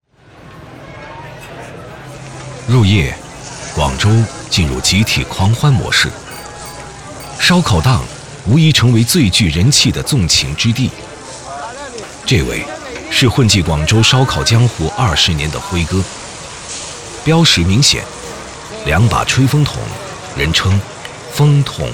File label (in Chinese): 男 纪录片 自然讲述纪录片; 广州食客